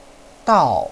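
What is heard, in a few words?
"dao", Mandarin Chinese, fourth tone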